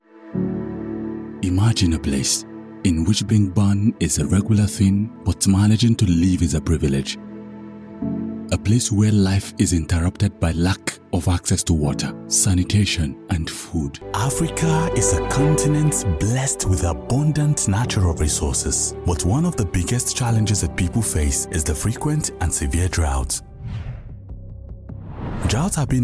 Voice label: English African narration

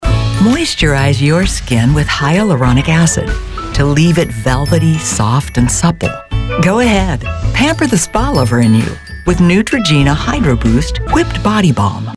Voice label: English international commercial

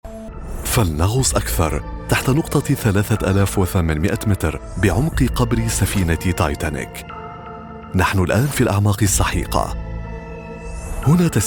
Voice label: arabic documentaries

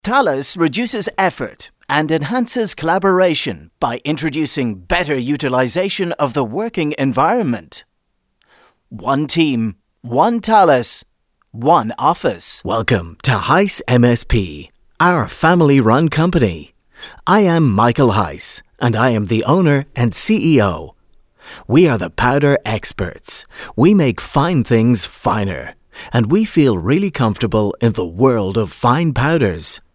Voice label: english irish corporate videos

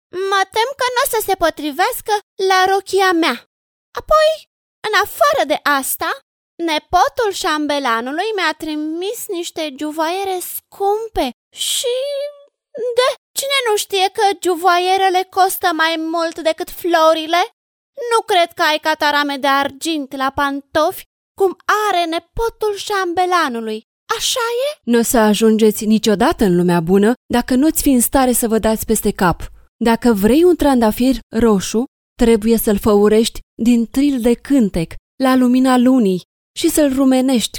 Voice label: romanian animation

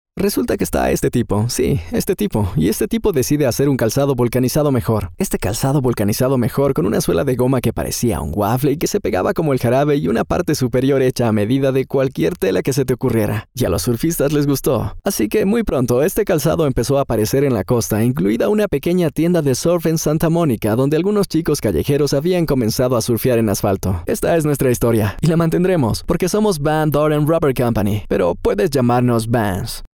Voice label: spanish latin american neutral tv shows

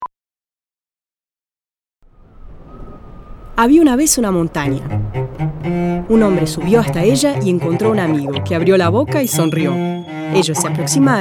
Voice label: spanish argentinian commercial; Rioplatense Spanish; Spanisch (Lateinamerika) Portugiesisch (Brasilianisch) Spanisch (Argentinisch)

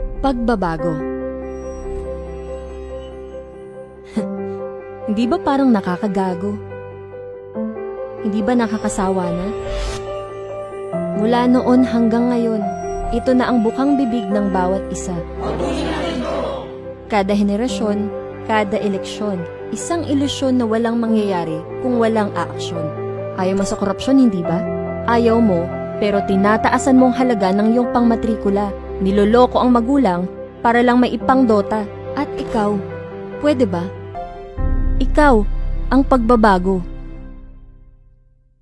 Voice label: tagalog narration

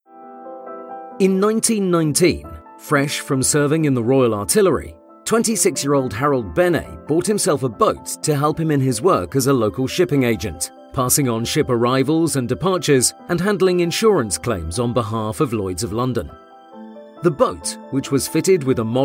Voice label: english british documentaries

